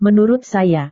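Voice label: "menurut saya", Indonesian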